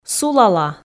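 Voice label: "сулала", Komi, female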